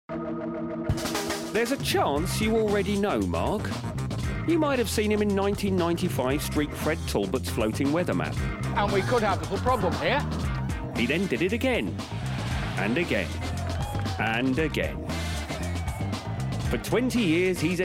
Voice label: Doco; Streak

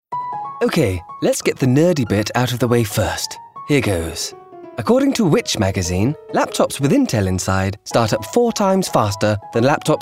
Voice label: COMMERCIAL; Intel

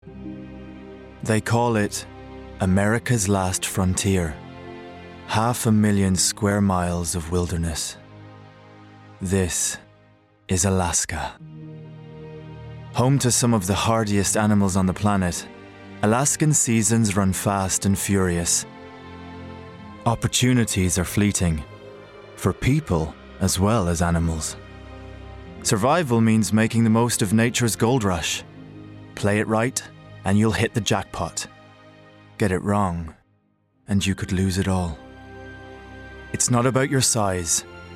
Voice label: Documentary; Alaska

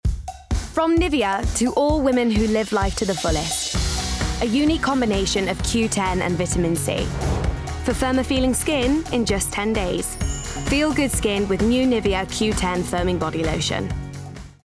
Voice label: COMMERCIALS; Nivea

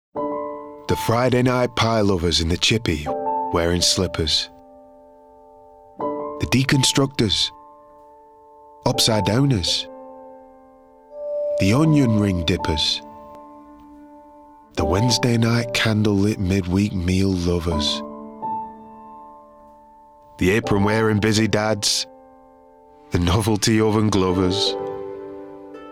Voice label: warm northern

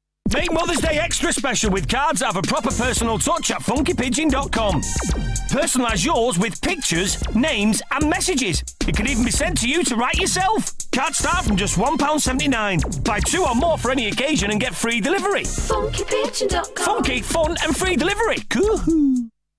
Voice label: Mothers Day TV ad; Funky Pigeon

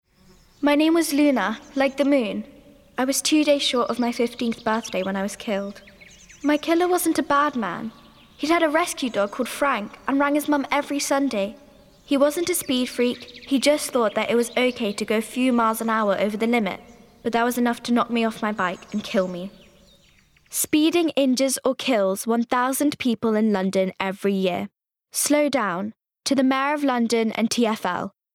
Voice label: Girl; TfL

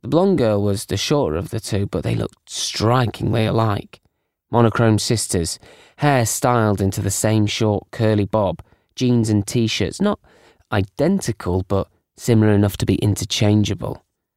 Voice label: Narrative

